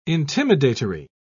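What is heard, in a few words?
"intimidatory", British English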